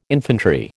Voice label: "infantry", American